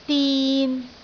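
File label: "din3", Cantonese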